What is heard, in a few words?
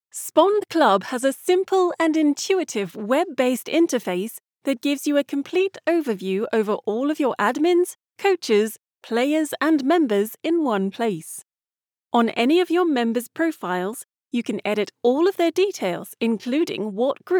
Explainer British Accent